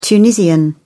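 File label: "tunisian", British English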